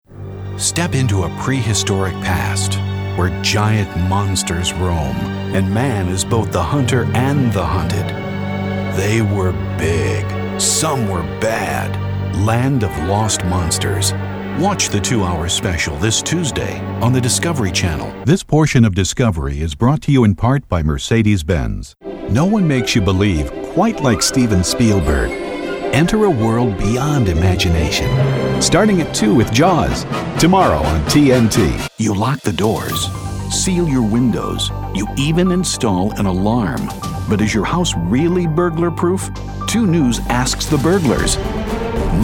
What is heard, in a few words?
promotional